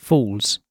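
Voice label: "falls", British English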